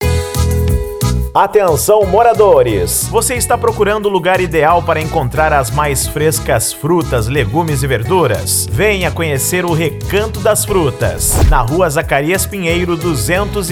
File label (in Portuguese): Locutor Hortifruti; Recanto Das Frutas Piquet Carneiro CE